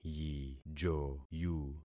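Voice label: "yijoyu", concatenated syllables; Affixing experiment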